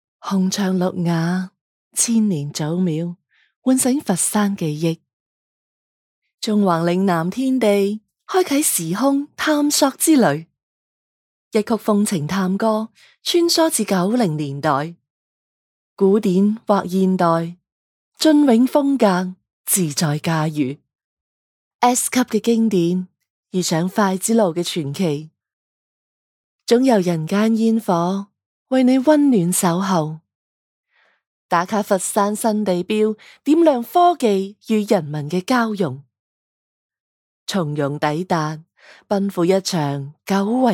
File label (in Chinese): 粤语汽车